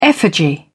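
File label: "effigy", British English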